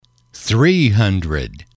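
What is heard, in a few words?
"three hundred", English, male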